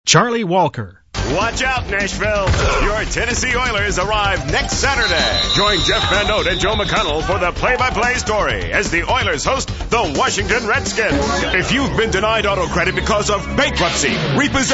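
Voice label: Male Talent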